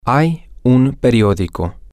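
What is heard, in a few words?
there is a newspaper, Spanish, male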